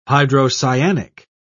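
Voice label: "hydrocyanic", British English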